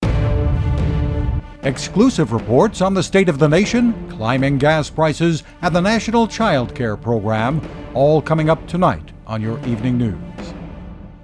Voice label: Newscaster